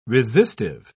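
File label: "resistive", British English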